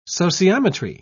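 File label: "sociometry", British English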